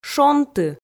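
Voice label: "шонты", Komi, female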